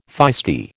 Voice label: "feisty", American